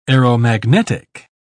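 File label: "aeromagnetic", English, uk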